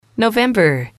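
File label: "november", English, female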